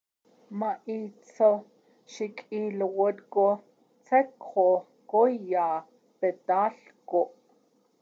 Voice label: When the wolf attacked me I pushed him down into, Navajo